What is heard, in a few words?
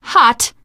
nice job, teengirl; survivor voice